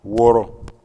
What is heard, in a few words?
"woro", Bambara